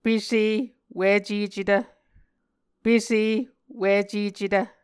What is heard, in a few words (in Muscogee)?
"pese wicecetv", Muscogee